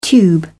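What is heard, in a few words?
"tube", British English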